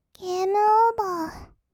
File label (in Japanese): ゲームオーバー, kawaii voice; ASMR; 色々なワンショット; 1200 kawaii voice!!